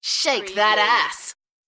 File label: UK female Shake that ass; beanstalk audio